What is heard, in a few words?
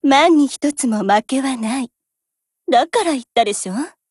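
WIN Japanese, Voice; GF Alarm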